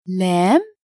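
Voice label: "lam", Arabic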